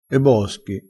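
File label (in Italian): "boschi", vocabolario dialettale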